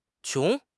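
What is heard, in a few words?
"qiong2", Mandarin Chinese; HSK4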